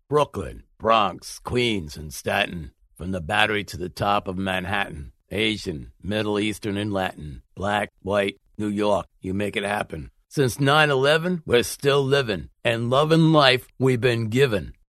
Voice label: CHARACTER NEW YORK TOUGH GUY

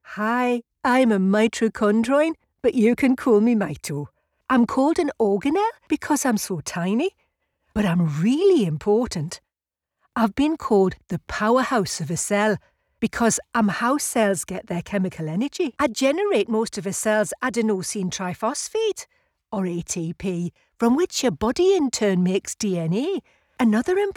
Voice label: Northern Medical E Learning

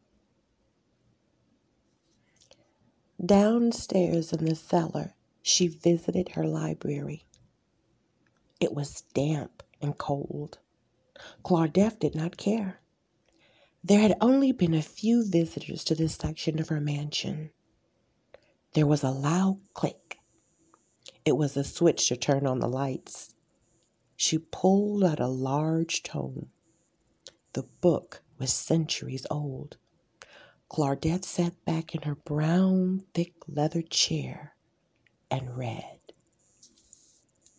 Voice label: Spooky Night Audiobook; The Legend of Clardeth

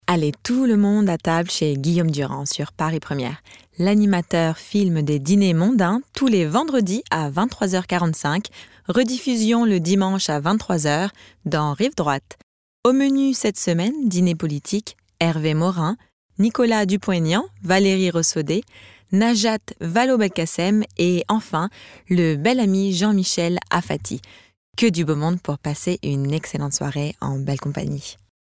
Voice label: European French Voice Talent F

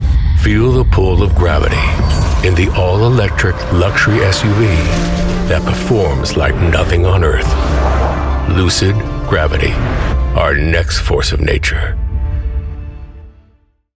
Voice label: automotive edgy laid back elegant sexy; Lucid

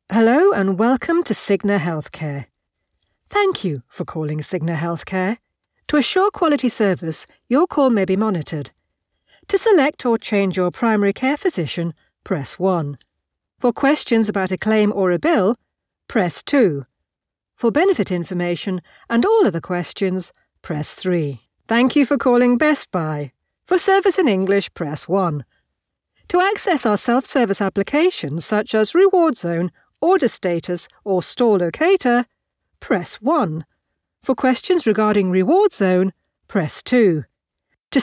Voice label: Telephone

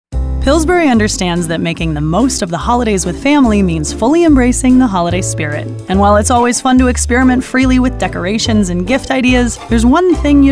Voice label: commercial reel

